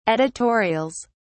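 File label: "editorials", British English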